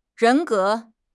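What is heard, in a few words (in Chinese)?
"人格", Chinese; HSK6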